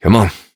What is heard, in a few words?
Horse Start Adult, Dialog; Duviri